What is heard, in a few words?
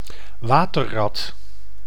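"waterrat", Dutch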